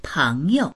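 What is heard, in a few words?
"peng2you", Chinese; péngyou; 朋友; 友人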